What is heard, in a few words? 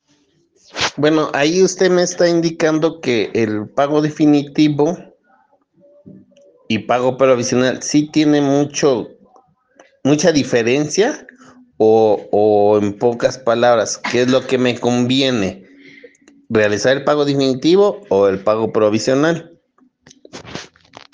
whatsapp ptt